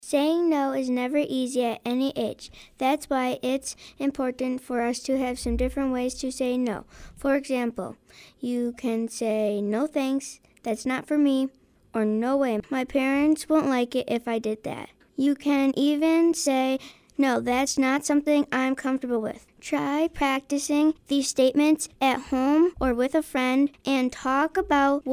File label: Announcement